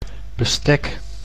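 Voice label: "bestek", Dutch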